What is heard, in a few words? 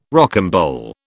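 "rocambole", British English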